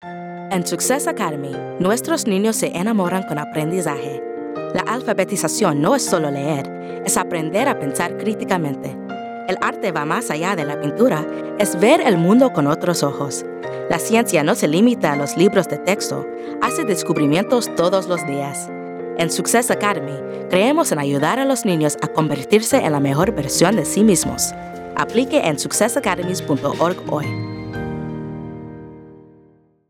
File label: Spanish Radio Ad